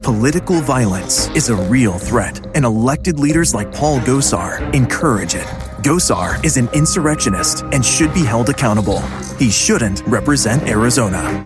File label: Political Candidate Attack, Political Voiceover; Angry Candidate Attack Ad